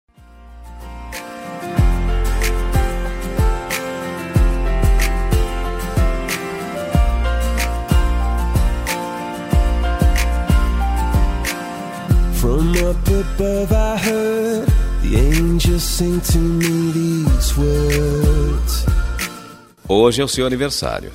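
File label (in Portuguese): birthday IRMAO DISTANTE male, telemensagem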